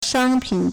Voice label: "shang1pin3", Chinese